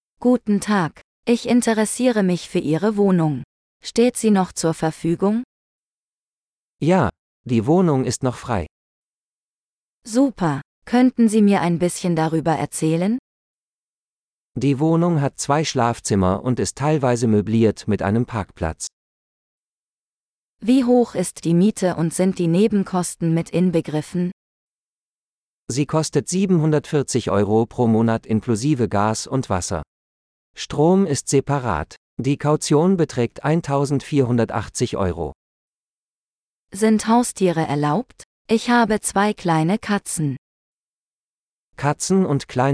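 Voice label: Renting an Apartment - Dialogue, German; de-en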